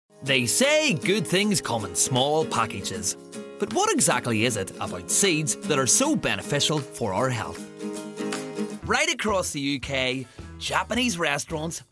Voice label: male, Northern Irish accent

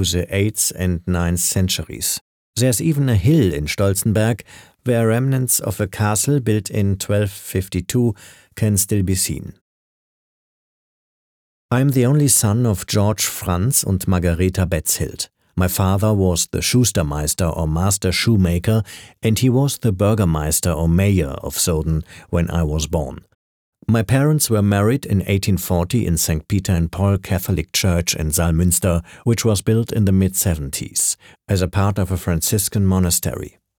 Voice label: male, English with German accent, narration; devine voices

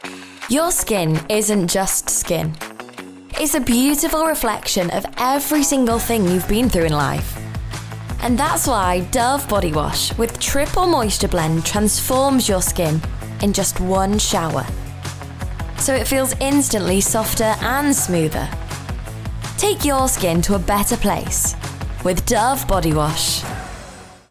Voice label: female English neutral northern accent commercial; english northern accents

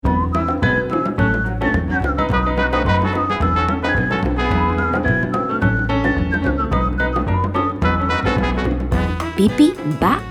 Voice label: female spanish castilian audiobook narration; pippi longstocking

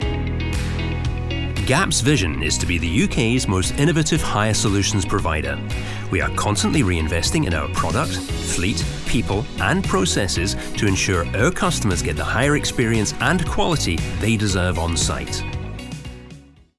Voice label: male english scottish on hold messaging; gap

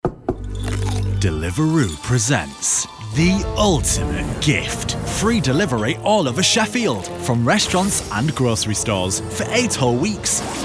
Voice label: male English northern accent commercial; deliveroo

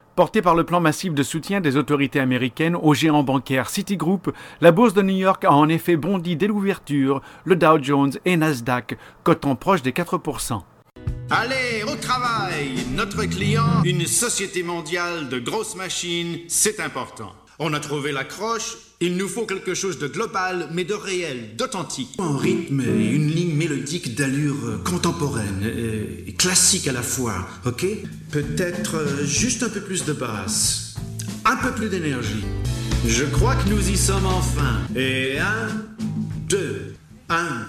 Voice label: male French Canadian French compilation

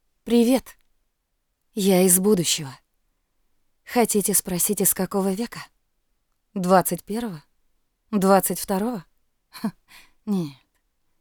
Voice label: female, Russian narration

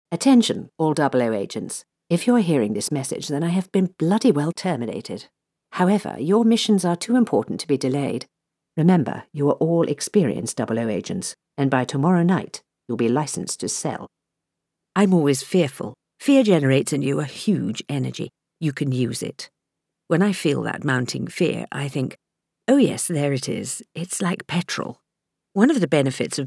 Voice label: female English; judi dench style; devine voices

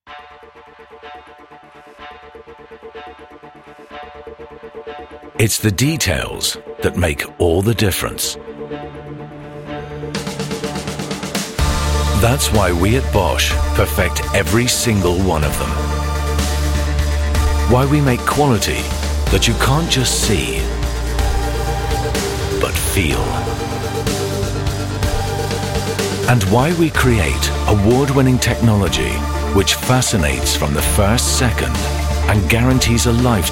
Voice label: male english image film; Devine Voices

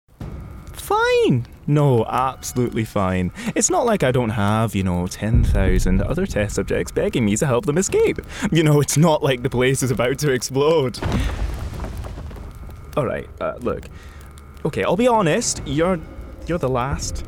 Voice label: male scottish accent video game character; english and scottish; portal 2

